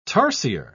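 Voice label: "tarsier", British English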